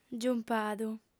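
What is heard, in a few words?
"giumpadu", Sardinian, female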